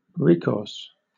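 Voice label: "recourse", British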